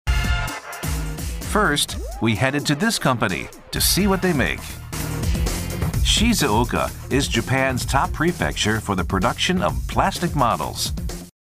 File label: Promotional Video Narration